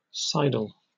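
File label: "sidle", British English; pronunciations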